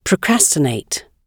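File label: "procrastinate", British English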